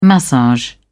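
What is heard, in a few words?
"massage", British English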